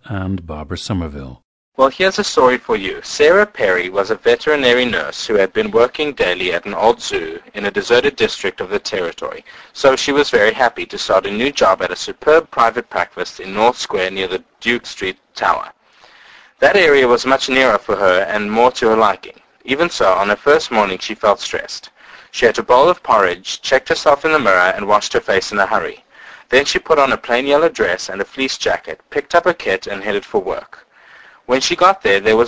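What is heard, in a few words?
south africa, dialects of English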